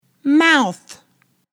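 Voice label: "mouth", English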